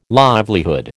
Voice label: "livelihood", American